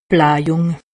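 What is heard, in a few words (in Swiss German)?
"blahjung", Haut Rhin; medecine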